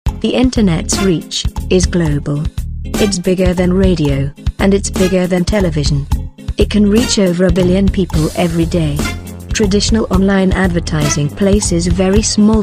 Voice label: commercial